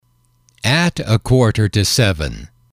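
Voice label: "at a quarter to seven", English, male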